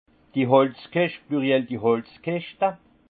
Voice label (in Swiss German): "holzkischt", alsace; vin; caisse en bois